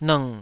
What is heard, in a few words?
"lng3", Taiwanese syllable